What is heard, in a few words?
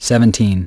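"seventeen", English; iOS 4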